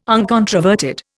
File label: "uncontroverted", Indian